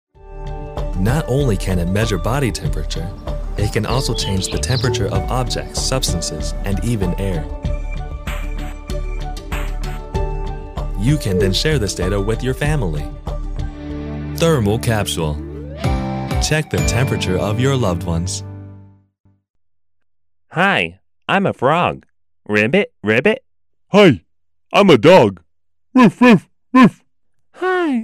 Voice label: American English, M